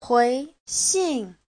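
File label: "huíxìn", Mandarin Chinese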